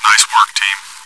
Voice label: cheer; svencoop